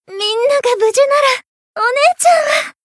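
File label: Voice win barely, Japanese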